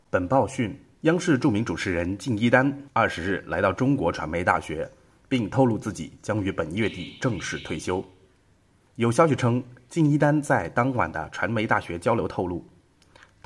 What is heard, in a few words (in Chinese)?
新闻